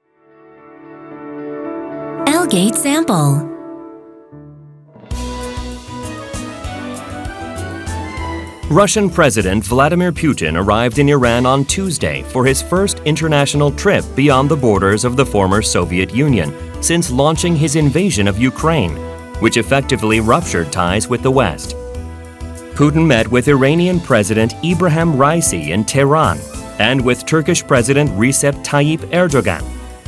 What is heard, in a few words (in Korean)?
영어 남 앵커톤